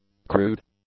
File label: "crude", English; adjectives